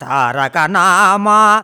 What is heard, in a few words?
MAN VX, Indian Traditions; USB Soundscan vol.45; AKAI